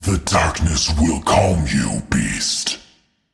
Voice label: Voices Phrase Hi; mighty party 2017 gamerip pc ios android; Void Hi Bear